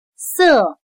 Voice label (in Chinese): "色", chino